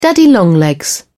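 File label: "daddy longlegs", British English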